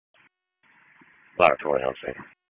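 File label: FIRE Operations, p25; FIRE OPS 2; wake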